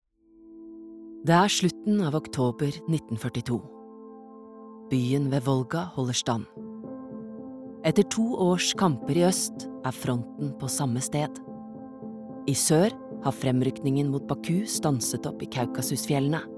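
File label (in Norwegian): dokumentar, Norwegian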